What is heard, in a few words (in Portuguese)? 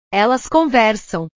"elas conversam", portugues; conversar; portugues/verbos